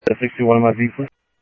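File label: Sheriff East County, scanner; SoutheastCenMesa; MCSO - EAST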